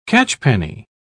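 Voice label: "catchpenny", British English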